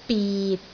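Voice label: "bit6", Cantonese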